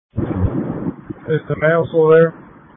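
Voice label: Police Patrol Dispatch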